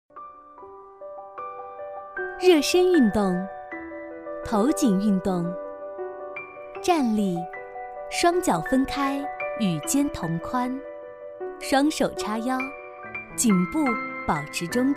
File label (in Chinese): 课件 孕妇操样音, 国语女声配音